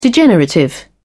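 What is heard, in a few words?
"degenerative", British English, dictionary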